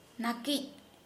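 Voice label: Santali female comb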